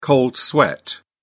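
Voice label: "cold sweat", British English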